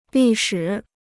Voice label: "历史", Chinese

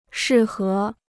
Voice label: "适合", Chinese

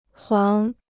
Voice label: "黄", Chinese

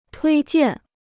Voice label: "推荐", Chinese

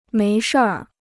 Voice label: "没事儿", Chinese

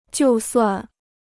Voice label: "就算", Chinese

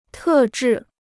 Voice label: "特制", Chinese

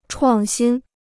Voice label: "创新", Chinese

